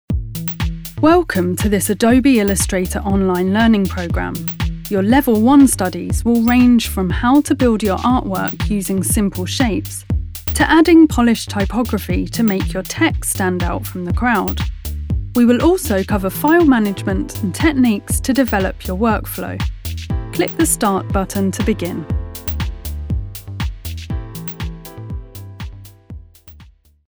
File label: Elearning; Adobe Elearning